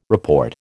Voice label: "report", American

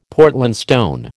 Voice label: "Portland stone", American

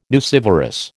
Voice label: "nucivorous", American

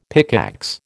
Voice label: "pickaxe", American

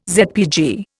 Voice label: "ZPG", Indian

